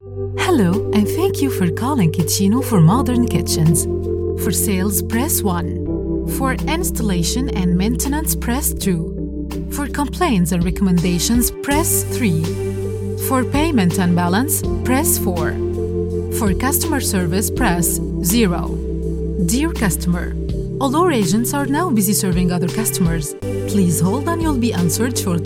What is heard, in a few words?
English accent, Classy friendly; IVR